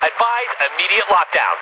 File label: Dispatch; Mirror's Edge (2008); Unused Assets; New Eden